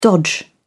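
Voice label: "dodge", British English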